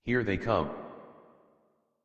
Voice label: Anger Adult, en, Dialog; Warframe; Duviri Paradox Quest